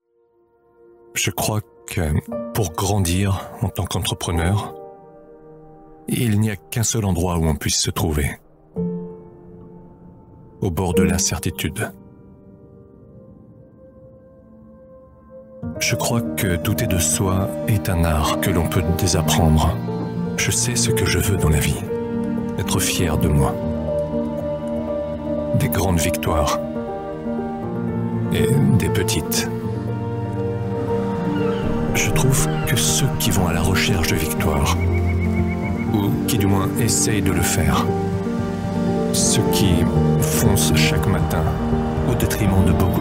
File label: corporate; bofidi; French voice-over